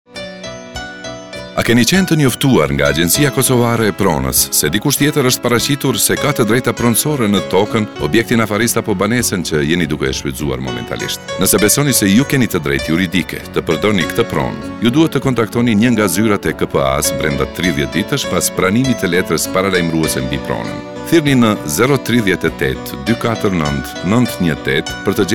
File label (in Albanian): radio shqip